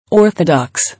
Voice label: "Orthodox", English